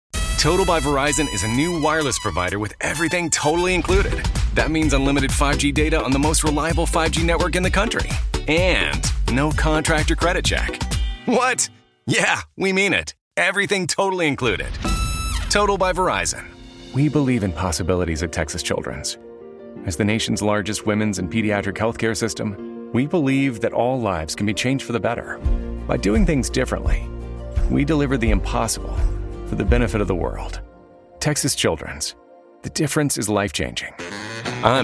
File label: Commercial